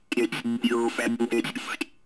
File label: comm voice; ogxbox